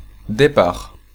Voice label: Depart, French, male

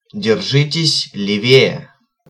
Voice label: Keep Left, Russian, male

